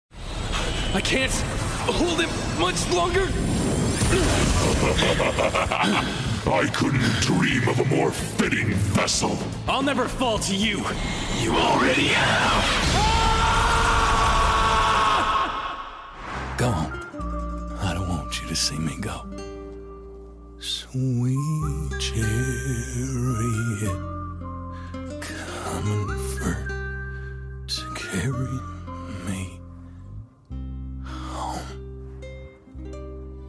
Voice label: broad character